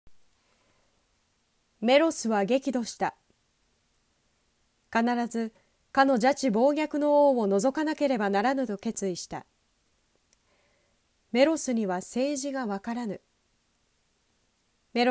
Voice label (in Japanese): 走れメロス 朗読